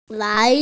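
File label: "lai2", Mandarin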